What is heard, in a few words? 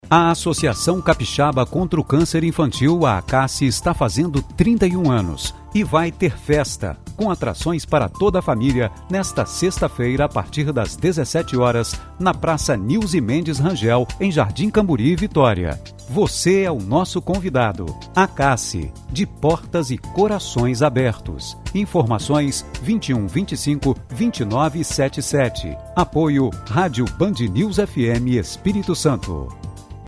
SPOT; ACACCI; celebrar os 31 anos da ACACCI